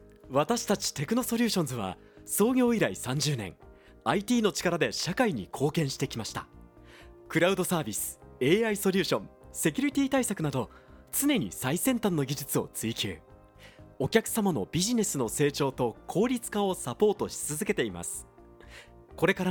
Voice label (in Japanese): 企業PR風